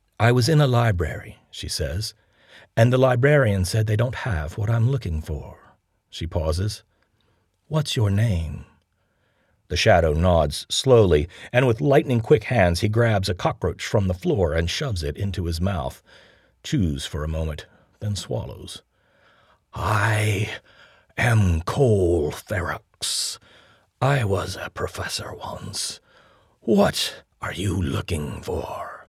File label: Narration Voices